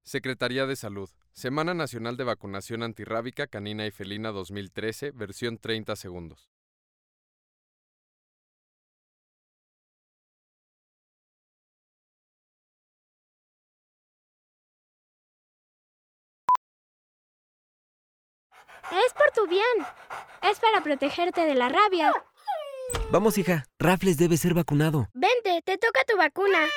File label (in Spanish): radio perros gatos; zoonosis